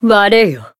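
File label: finish, Japanese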